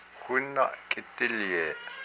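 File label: "my collarbone", Hupa, male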